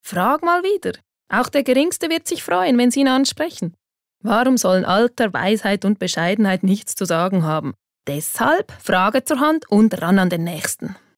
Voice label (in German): CH Werbung Freundlich